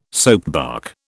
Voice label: "soapbark", British